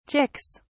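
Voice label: "chicks", British English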